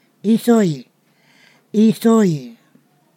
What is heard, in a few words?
"old person", Ponosakan